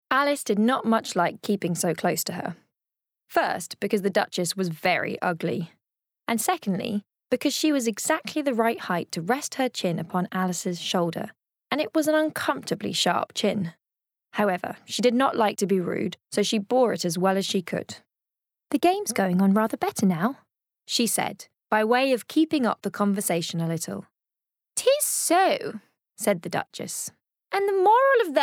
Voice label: Audiobook